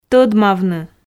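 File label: "тодмавны", Komi, female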